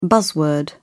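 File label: "buzzword", English, British English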